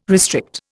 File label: "restrict", Indian